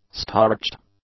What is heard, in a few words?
"starched", English; adjectives